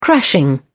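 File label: "crashing", English, British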